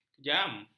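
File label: "kejam", Malay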